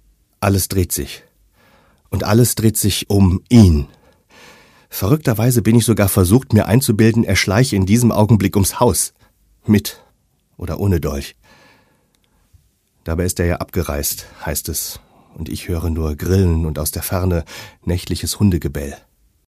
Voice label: Schauspiel Neutral

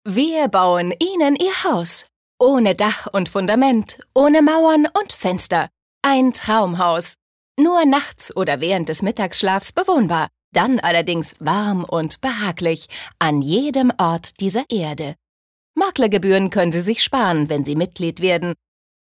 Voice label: Werbung Freundlich

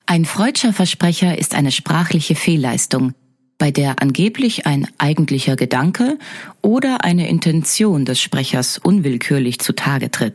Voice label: Versprecher Freundlich

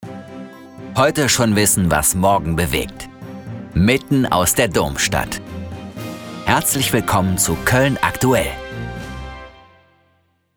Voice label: Dynamisch

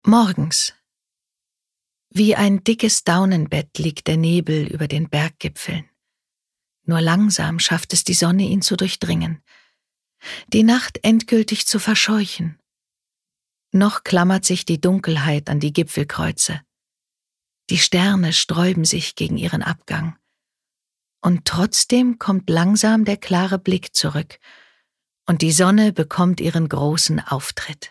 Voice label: Schauspiel Sanft

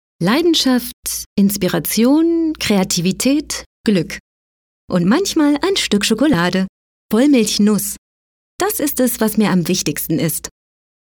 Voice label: Fremdtakte Neutral

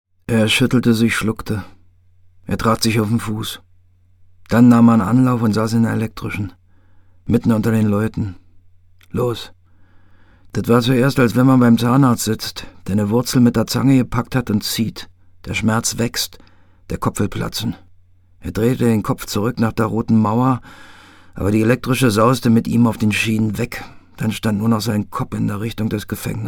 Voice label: Dialekt Berlinerisch

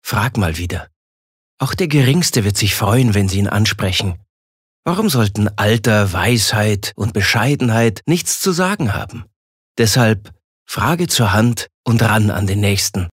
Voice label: Werbung Sanft

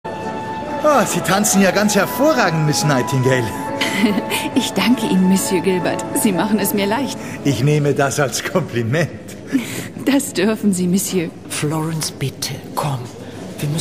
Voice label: Schauspiel Variabel

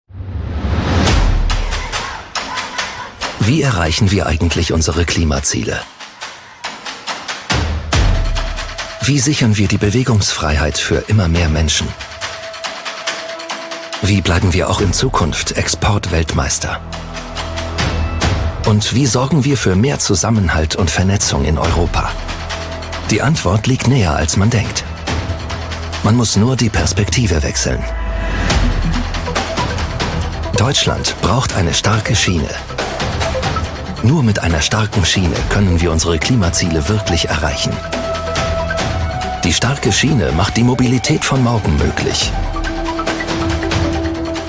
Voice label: W Fremdtake, Geheimnisvoll; Deutsche Bahn

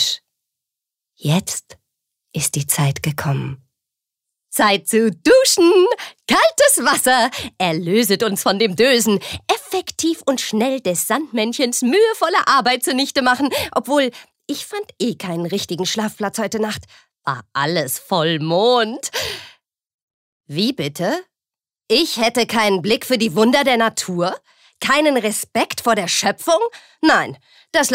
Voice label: Schauspiel Variabel

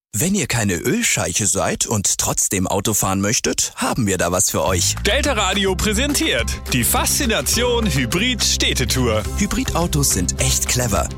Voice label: Freundlich

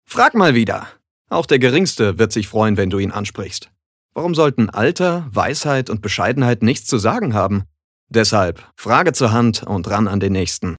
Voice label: Werbung Cool

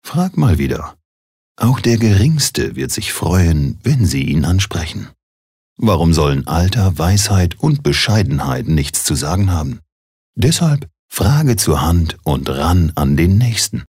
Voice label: Werbung Sanft

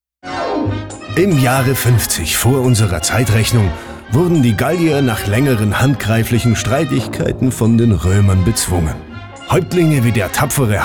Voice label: Schauspiel Lebendig

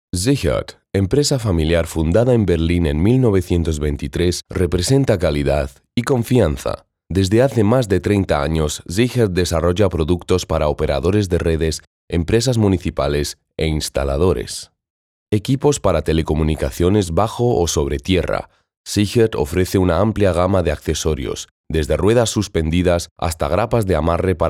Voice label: ESP Kommentar Neutral

